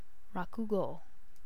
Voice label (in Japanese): "rakugo"